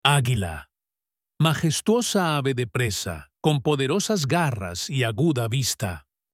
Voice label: "Aguila", spanish; 48 animals spanish